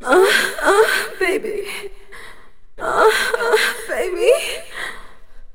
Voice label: "ooh ooh baby ooh ooh baby", voice; ooh ahh

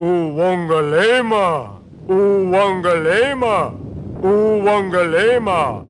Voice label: oowungulama oowungulama oowungulama, gibbrish; Voice

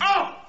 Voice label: "oh" male; ooh ahh

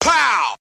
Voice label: "pow", Voice

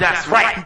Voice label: "that's right", male

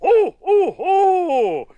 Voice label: ho ho ho jolly

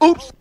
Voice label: "oops" male; ooh ahh; human

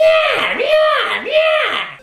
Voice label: meeyah meeyah meeyah, gibbrish; Voice

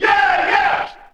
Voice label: "yeah yeah", voice; ooh ahh

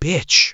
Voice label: "bitch", expletives

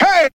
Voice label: "hey", Voice

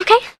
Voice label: "okay", expletives

